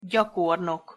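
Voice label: "gyakornok", Hungarian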